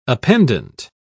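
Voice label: "appendant", British English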